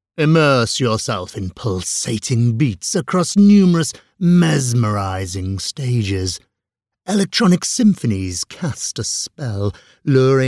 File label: voiceover commercial short theme park monster